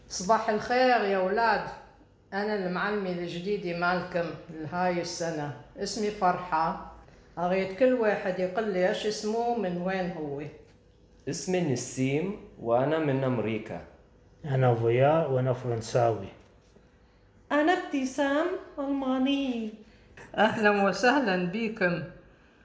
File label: Baghdadi Judeo-Arabic; Audio recording 5.1.2 to accompany section on Lesson 5: Work, Studies and Place of Origin; (where is he from?)